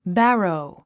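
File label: "barrow", English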